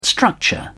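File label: "structure", British English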